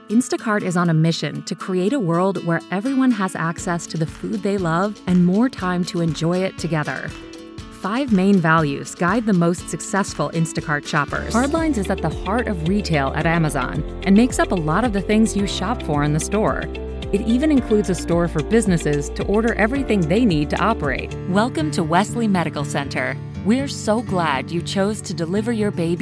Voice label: Corporate Narration